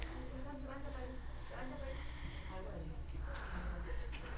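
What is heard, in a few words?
"also", spoken word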